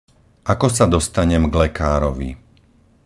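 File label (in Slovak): "ako sa dostanem k lekárovi", Slovak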